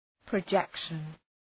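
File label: "projection", English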